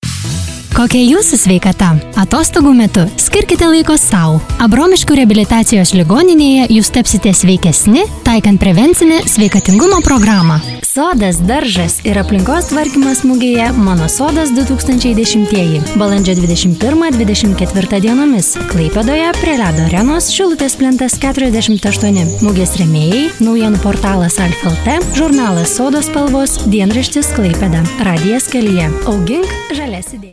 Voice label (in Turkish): Litvanca Kadin, seslendirme; Kadın Ses; kadin sesler